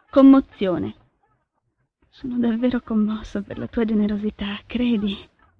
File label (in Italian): commozione